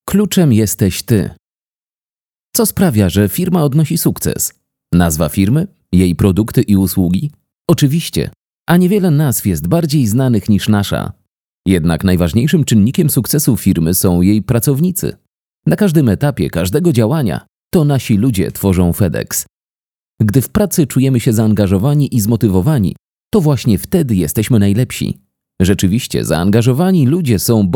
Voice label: polish VO corporate